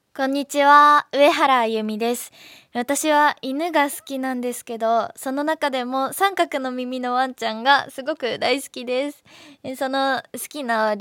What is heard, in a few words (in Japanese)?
フリートーク